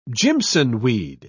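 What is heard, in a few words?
"jimsonweed", British English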